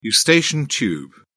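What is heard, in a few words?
"eustachian tube", British English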